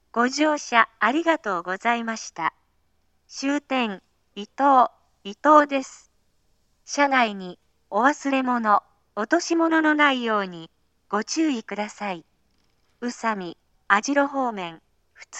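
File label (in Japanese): 終着放送, 合成音声型 (女性）; 伊豆急下田行き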